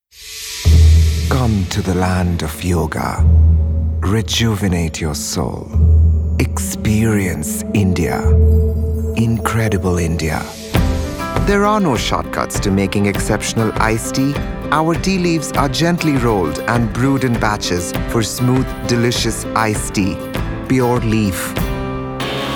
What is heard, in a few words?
Commercial